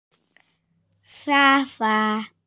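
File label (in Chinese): "sha1fa1", Mandarin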